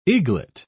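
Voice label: "eaglet", British English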